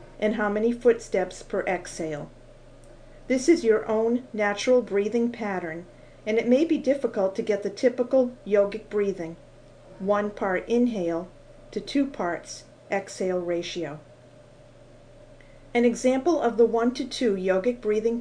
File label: Walking Yoga Meditation; Yoga Insights